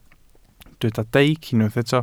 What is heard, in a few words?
"deic", welsh